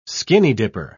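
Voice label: "skinny-dipper", British English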